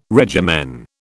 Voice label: "regimen", British

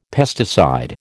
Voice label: "pesticide", American

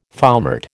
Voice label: "foumart", American

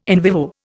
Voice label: "in vivo", Indian

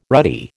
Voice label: "ruddy", American

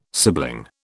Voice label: "sibling", British

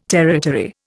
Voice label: "territory", Indian

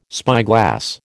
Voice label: "spyglass", American

